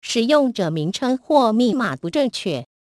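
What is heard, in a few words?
Username Or Password Incorrect, Chinese (Taiwan); Hackintosh T480; AXEFIAudio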